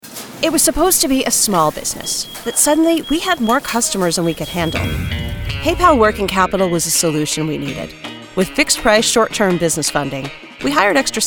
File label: commercial